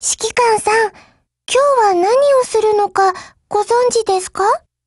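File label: hello, Japanese; HK23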